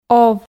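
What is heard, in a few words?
"ов", Komi, female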